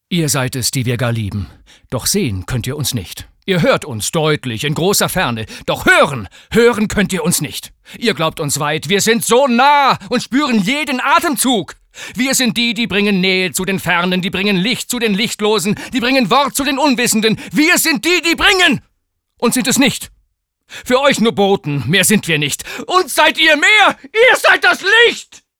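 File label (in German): aggressiv, Sprecher, Männer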